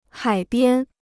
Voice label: "海边", Chinese

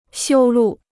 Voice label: "修路", Chinese

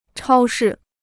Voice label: "超市", Chinese

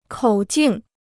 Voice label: "口径", Chinese

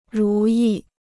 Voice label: "如意", Chinese

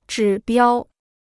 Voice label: "指标", Chinese

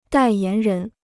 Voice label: "代言人", Chinese

